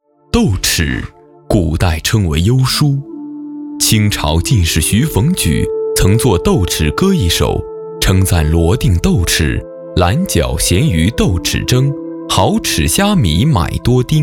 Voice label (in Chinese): 男 舌尖 纪录片 豆豉